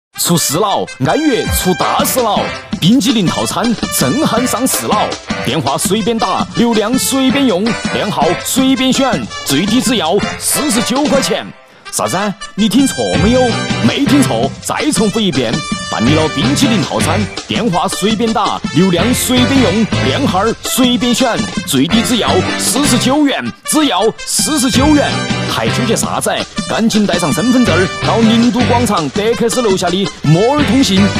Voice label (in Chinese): 男 餐饮促销; 年轻